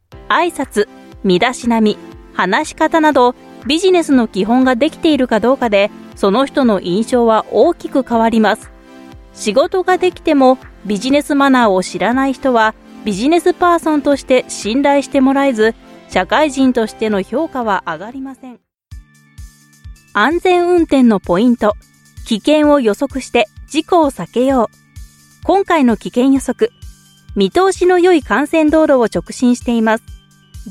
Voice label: Japanese E-Learning, Female